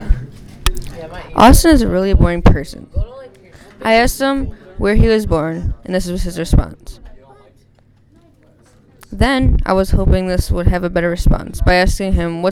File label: autumn narration